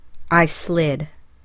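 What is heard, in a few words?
"I slid", English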